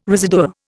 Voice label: "residua", Indian

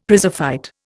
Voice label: "prizefight", Indian; Dictionary

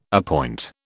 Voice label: "appoint", British

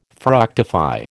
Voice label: "fructify", American

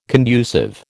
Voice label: "conducive", American

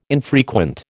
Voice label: "infrequent", American

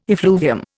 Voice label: "effluvium", Indian

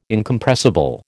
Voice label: "Incompressible", American

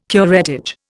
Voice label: "curettage", Indian

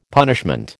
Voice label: "punishment", American

